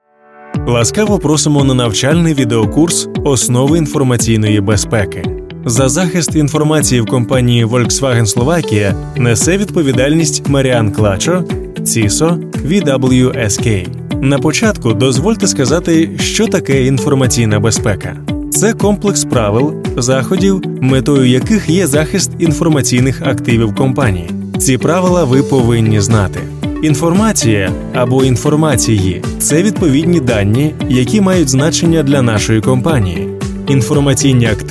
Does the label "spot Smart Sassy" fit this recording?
No